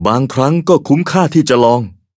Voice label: victory, th

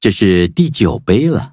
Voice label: level up, zh-hant

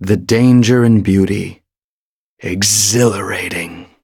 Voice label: levelup en; cookie run

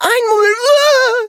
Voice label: hit, de; cookierunwiki

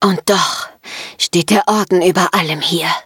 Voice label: defeat, de

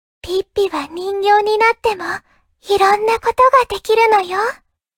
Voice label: upgrade, ja; cookierun

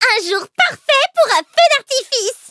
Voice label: victory, fr; Cookie Run

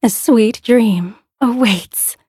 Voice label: levelup, en; Cookie Run

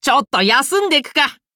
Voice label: levelup ja; cookierunwiki

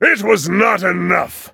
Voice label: hit en

